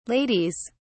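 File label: "ladies", British English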